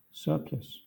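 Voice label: "surplus", English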